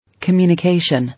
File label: "Communication", English